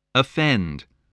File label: "offend", voice, spelling